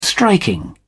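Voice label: "striking", British English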